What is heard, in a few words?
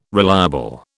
"reliable", British